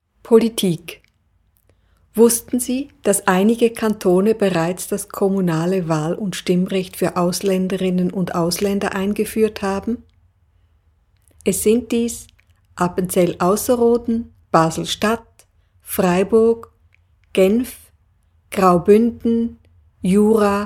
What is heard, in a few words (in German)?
deutsch